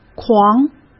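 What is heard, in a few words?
"狂", Mandarin Chinese; chinese flashcards; hsk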